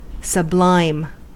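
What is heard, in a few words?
"sublime", US English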